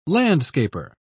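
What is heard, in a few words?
"landscaper", British English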